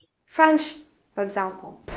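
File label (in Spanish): Voice Acting, Spanish, female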